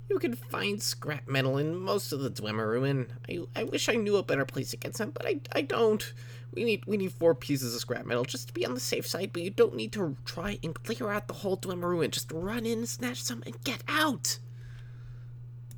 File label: bosmer, wood elf voice auditions; fargoth